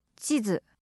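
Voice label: "chizu", Japanese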